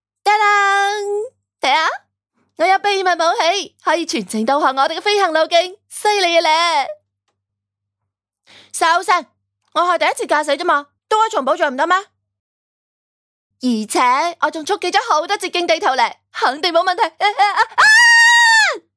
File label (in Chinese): 粤角色音少御